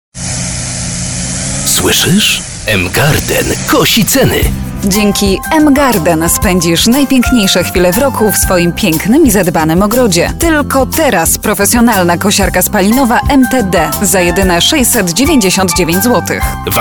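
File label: Polish, female, commercial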